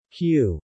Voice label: Q male